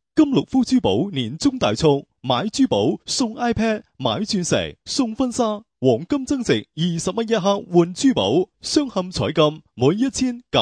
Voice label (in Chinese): 粤语 男; 金六福珠宝